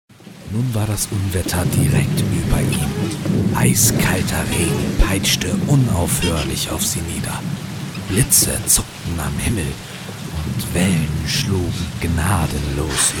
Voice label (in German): Hörbuch Erzähler